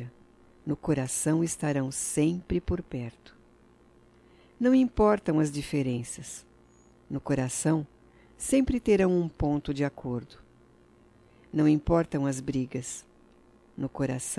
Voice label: DIA DO AMIGO F; Datas Mistas; Telemensagens 2017

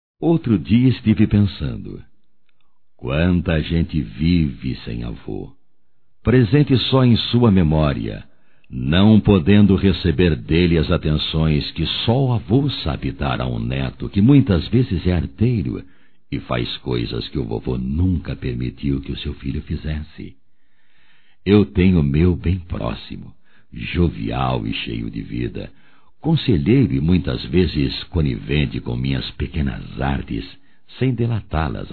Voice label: Aniversário Avô Masculino; Telemensagens 2017; Aniversarios

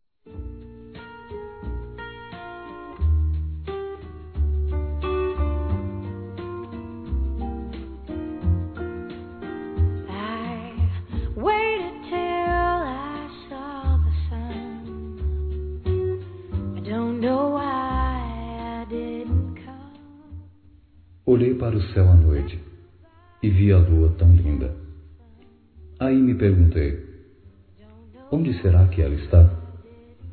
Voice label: Não Quero Perder Esposa Masculino; Telemensagens; Dia Dos namorados; Telemensagens 2017

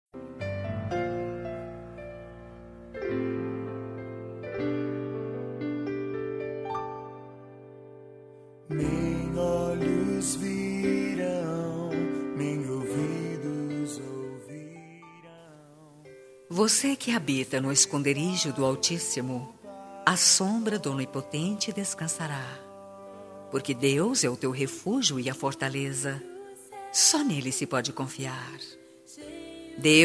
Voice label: ANIV COM SALMO 91 FEM; Aniversários

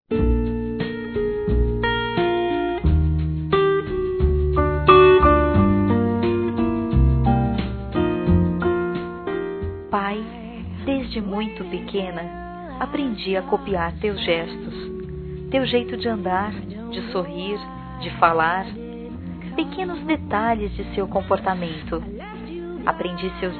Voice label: TUA PRESENÇCA, fem; Telemensagens 2017

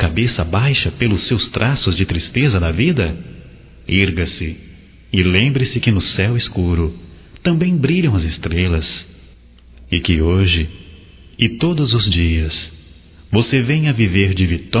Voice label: Aniv para funcionário, gerente envia, Telemensagens; Telemensagens 2017; Aniversarios

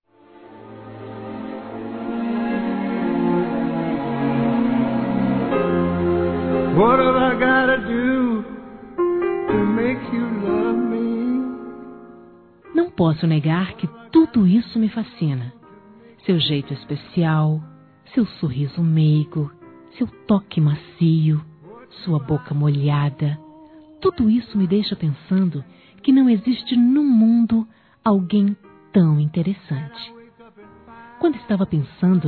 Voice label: Romântica Suave II Feminino, Telemensagens

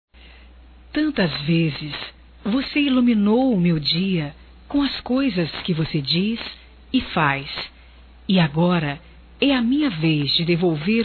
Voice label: filha envia, telemensagens, Dia das Mães; Telemensagens 2017

